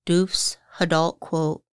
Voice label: Tea "dxʷhədalqʷuʔ", Lushootseed; traditional foods and healing

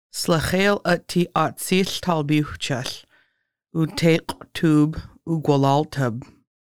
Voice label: MMIP Day, Lushootseed

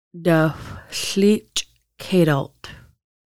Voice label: Hair Salon, Lushootseed

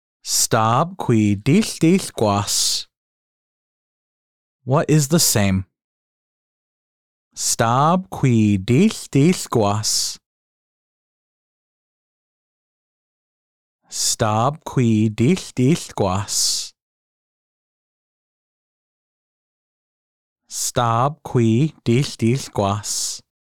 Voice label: What is the same stab kʷi diɬdiɬgʷas, Lushootseed; fish shellfish lifecycle

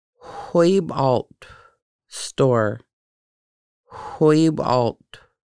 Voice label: "xʷuyubalʔtxʷ" store, conversational Lushootseed; Conversational Lushootseed: Lessons 1-5

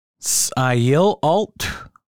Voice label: Museum "sʔayilalʔtxʷ", Lushootseed; longhouse

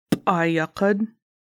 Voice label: adze, Lushootseed

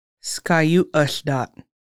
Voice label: Halloween, Lushootseed

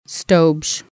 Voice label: "man", Lushootseed

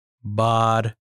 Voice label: father bad, Lushootseed; storytelling

